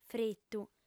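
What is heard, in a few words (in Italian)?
"fritu", lingua sarda, female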